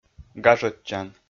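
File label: "гажӧдчан", Komi, male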